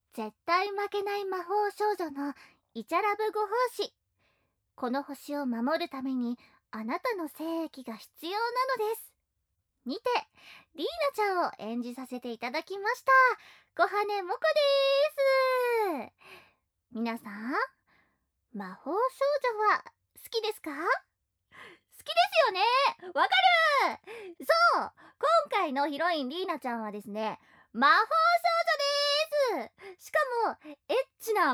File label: FreeTalk, ASMR; KU100; 絶対負けない魔法少女のイチャラブご奉仕 ～この地球を守るためにあなたの精液が必要なのです!～